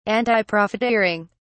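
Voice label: "antiprofiteering", British English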